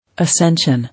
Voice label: "Ascension", English